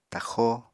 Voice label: "taxó", apatani